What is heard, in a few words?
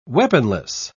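"weaponless", British English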